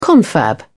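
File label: "confab", British English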